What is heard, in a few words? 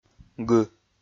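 "гы", Komi, male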